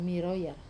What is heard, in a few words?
"maroya", to roll, wamesa